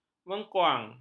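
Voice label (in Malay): "mengkuang", Malay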